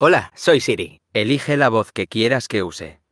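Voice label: Spanish, Spain; iOS Sounds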